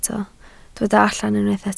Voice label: "allan", Welsh